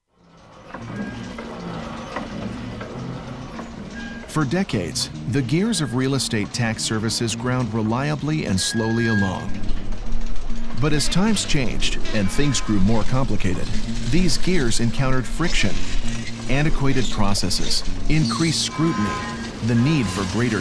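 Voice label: Explainer, Male Voice; CoreLogic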